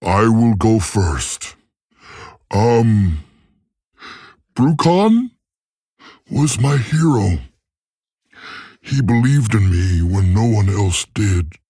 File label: Male Tauren; Guff